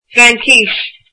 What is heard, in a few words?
French, irish